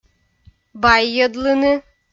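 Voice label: "баййӧдлыны", Komi, female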